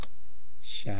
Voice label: "shaa", East Cree syllabics